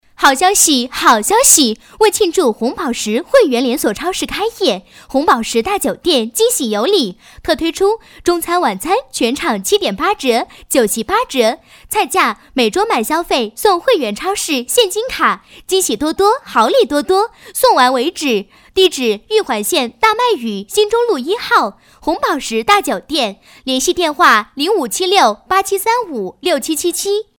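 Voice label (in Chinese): 庆祝连锁超市开业, 国语女声配音; 庆祝红宝石汇源连锁超市开业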